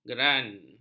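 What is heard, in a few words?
"geran", Malay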